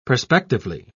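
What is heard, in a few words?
"perspectively", British English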